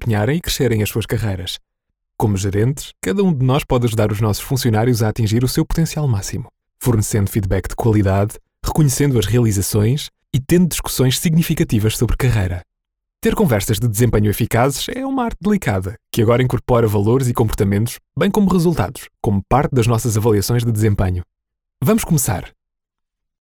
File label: portuguese e-learning